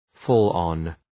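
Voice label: "fall on", English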